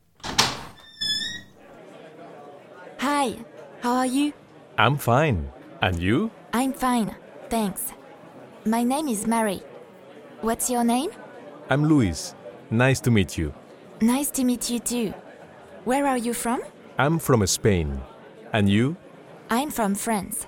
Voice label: Meeting and greeting AmE